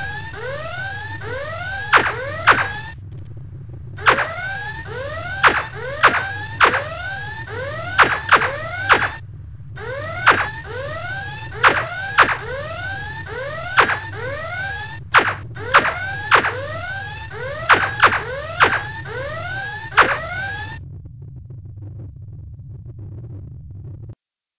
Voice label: Star Ship Captain Issues Red Alert And Fire Fazers At Enemy Ship